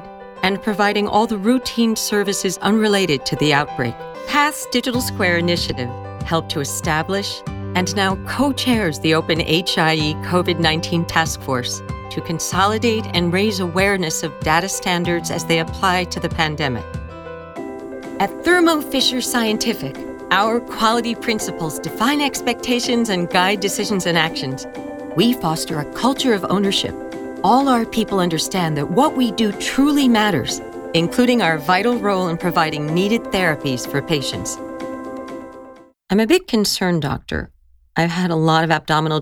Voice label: Medical-Narration Epidemic Explainer; CDC PSA; HIE Covid-19 Task Force; Thermo Fischer